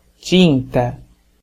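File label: "ink", Brazilian Portuguese; gcompris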